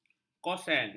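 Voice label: "kosen", Malay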